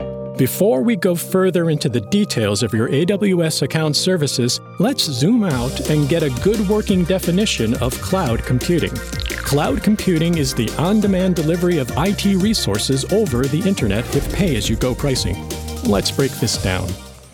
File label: eLearning cloud computing experienced casual